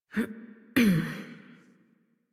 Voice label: Horse Dismount Adult; Warframe; Duviri